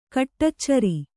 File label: "ಕಡೆಚೋರಿ", Kannada